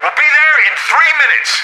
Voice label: radio in transit; Left 4 Dead 2; left4dead2 dlc3; boatman; npc